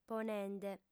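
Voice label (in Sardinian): "ponende", female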